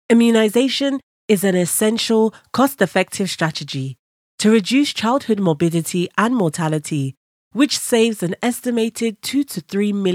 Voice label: english african corporate videos; voice actors